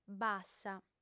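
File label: "bassa", female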